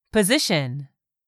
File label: "POSITION", English; 3000 words